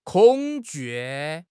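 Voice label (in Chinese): "恐惧", Chinese; HSK6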